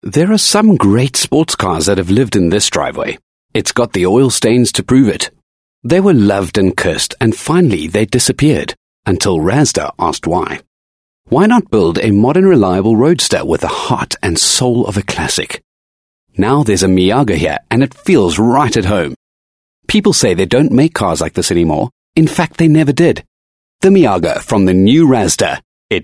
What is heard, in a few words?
English Conversational